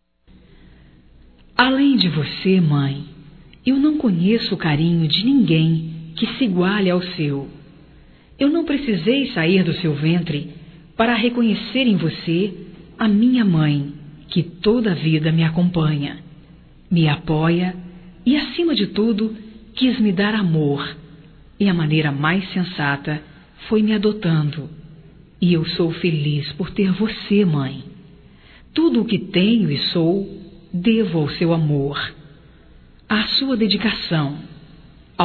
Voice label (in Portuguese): Homenagem a Mãe Adotiva Feminino, Telemensagens, Só Voz; Telemensagens 2017